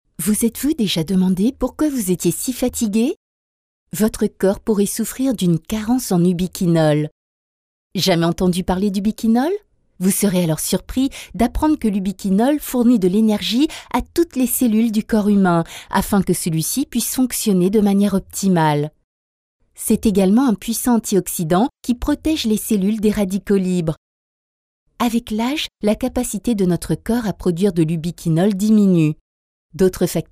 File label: french female corporate